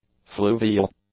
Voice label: "fluvial", english